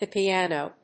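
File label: "the piano", English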